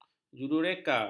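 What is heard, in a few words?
"jurureka", Malay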